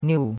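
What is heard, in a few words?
"niu4" Mandarin Chinese syllable, fourth tone; Cours-de-Chinois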